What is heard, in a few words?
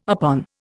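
"upon", Indian English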